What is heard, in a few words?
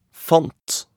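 "fant", Norwegian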